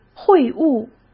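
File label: "会晤", Mandarin Chinese; hsk; chinese-flashcards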